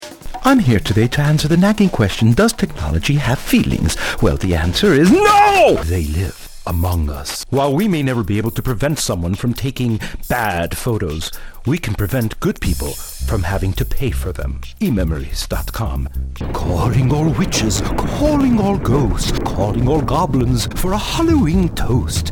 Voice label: commercials English